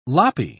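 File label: "loppy", British English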